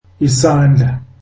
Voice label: zulu hand